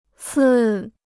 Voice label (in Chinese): "次", Chinese